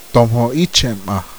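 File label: "I love u", Zuni language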